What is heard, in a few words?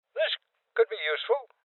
Voices Loot Collect Male Old; Rebel Cops